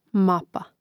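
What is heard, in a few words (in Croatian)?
"mapa", Croatian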